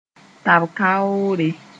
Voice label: "tab-kaoore", moore; flag

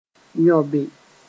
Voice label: "yõbe", moore

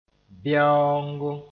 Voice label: "bɛoongo", moore; flat-roofed room

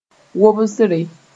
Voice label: "wobsre", moore

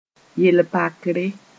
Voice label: "yel-pakre", moore

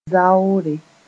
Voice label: "zaoore", moore

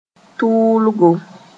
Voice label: "tʋʋlgo", moore; heat (weather)

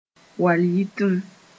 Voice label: "wal-yitim", Mooré

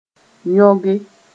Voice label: "yõge", moore

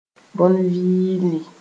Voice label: "bõn-vɩɩlɩ", moore

